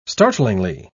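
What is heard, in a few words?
"startlingly", British English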